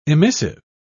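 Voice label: "emissive", British English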